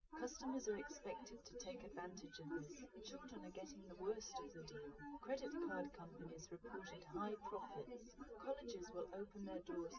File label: female, live rec; SiSEC08; SiSEC underdetermined